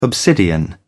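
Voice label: "obsidian", British English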